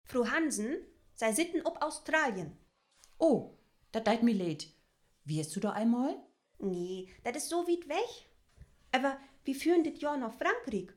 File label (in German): Dialog, niederdeutsch